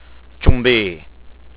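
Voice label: "choonbee", Korean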